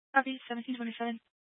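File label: Fire Dispatch, Scanner audio recording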